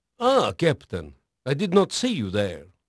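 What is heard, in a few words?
Portuguese male, voice; Pirates Of The Caribbean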